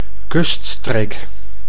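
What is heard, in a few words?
"kuststreek", Dutch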